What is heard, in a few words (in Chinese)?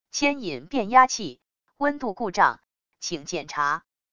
语音, 语音报警器; EJM 560